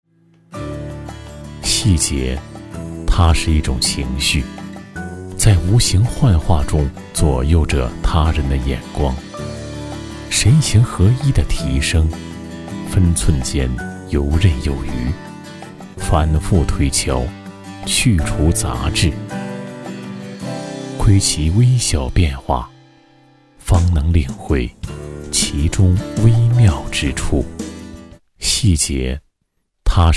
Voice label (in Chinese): 男 磁性感情; 细节